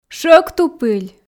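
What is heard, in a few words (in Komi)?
"шӧвктупыль", Komi, female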